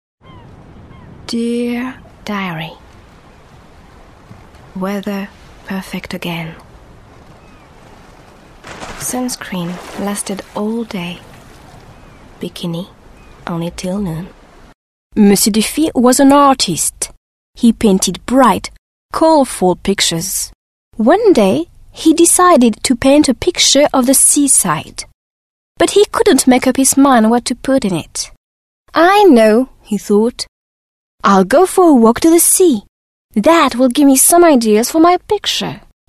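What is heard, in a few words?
French English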